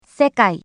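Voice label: "sakai", Japanese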